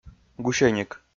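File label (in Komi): "гусьӧник", Komi, male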